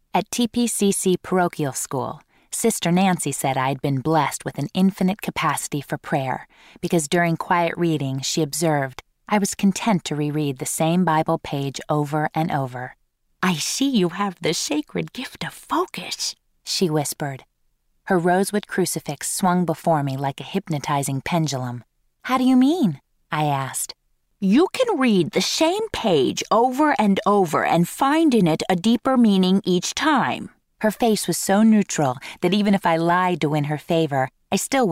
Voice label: audiobooks